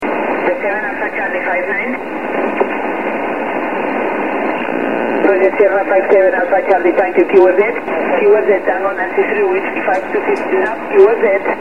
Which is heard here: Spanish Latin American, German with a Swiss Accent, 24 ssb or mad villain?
24 ssb